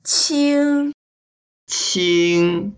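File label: "qing1", Mandarin Chinese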